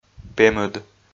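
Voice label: "пемыд", Komi, male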